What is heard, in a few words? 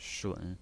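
"shun", Mandarin Chinese pinyin, third tone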